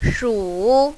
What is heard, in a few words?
"shu3", mandarin, female